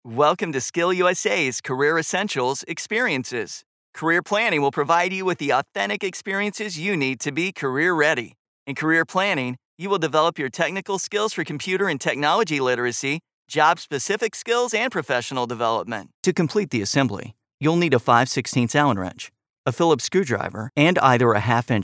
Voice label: E-Learning Narration